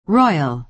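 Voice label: "royal", American English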